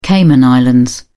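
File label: "cayman islands", British English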